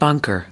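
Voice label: "bunker", US English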